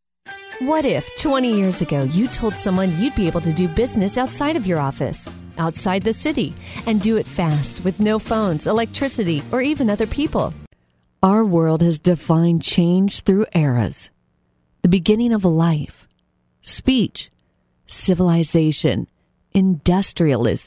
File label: Commercial